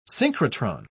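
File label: "synchrotron", English, British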